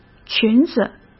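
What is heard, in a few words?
"裙子", Mandarin Chinese; hsk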